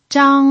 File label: "zhang1", pinyin